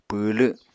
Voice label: "pwwlw", Apatani